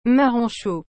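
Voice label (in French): "marrons chauds", French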